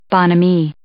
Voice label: "bonhomie", English